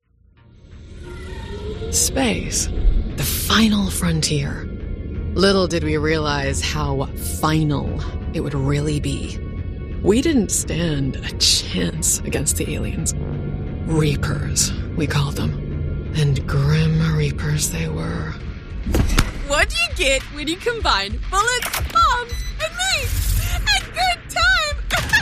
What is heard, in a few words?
english new zealand video games